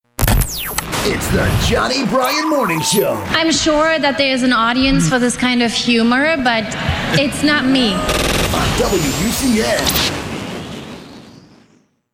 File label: Sweeper